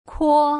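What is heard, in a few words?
"kuo", Putonghua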